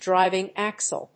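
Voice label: "driving axle", English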